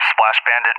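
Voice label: "splash bandit", English, USA; DCS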